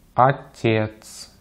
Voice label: "отец", Russian; easypronunciation

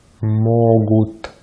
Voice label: "могут", Russian

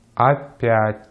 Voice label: "опять", Russian; Consonantes en ruso; easypronunciation

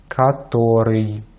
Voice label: "который", Russian

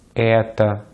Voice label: "это", Russian; Vocales tónicas en ruso; easypronunciation

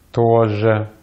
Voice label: "тоже", Russian; Consonantes en ruso; easypronunciation

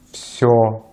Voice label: "всё", Russian; Vocales tónicas en ruso; easypronunciation

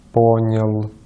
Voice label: "понял", Russian; easypronunciation

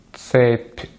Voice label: "цепь", Russian; Consonantes en ruso; easypronunciation

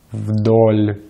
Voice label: "вдоль", Russian; easypronunciation

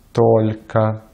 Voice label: "только", Russian; Vocales átonas en ruso; easypronunciation

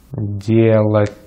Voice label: "делать", Russian; Consonantes en ruso; easypronunciation

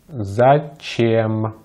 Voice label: "зачем", Russian; easypronunciation

